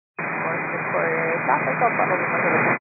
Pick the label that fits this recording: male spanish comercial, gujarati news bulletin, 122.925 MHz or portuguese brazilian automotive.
122.925 MHz